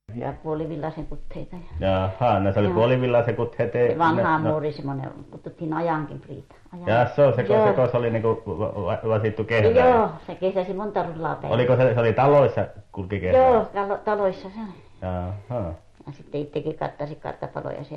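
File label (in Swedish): Övertorneå Norrbotten, dialekter; Folkminnen; Svenska sagor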